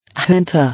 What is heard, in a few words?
"Junta", English